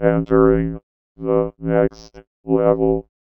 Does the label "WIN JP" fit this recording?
No